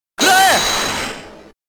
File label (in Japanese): "kurae", Japanese; Edgeworth